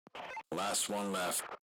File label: last enemy, radio